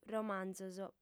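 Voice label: "romanzos", female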